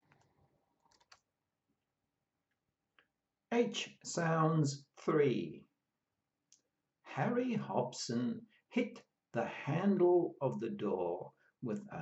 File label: H sounds, pronunciation of australian english